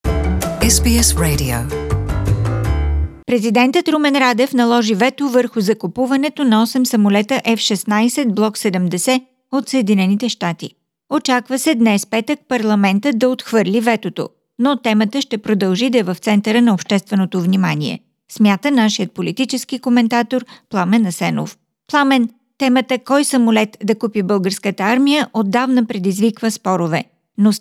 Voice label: bulgarian; Why Bulgaria's President has vetoed the contract for F-16 aircrafts?; SBS Bulgarian